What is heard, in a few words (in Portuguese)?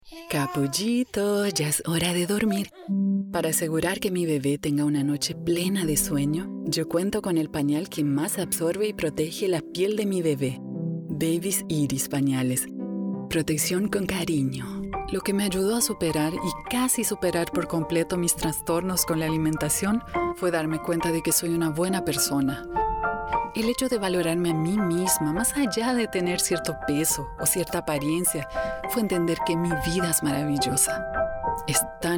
Emocional